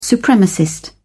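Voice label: "supremacist", British English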